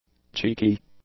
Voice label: "cheeky", English; adjectives